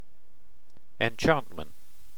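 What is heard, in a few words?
"enchantment", British English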